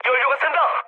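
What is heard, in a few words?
Korean tank damaged mood med, speech; War Thunder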